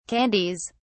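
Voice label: "candies", British English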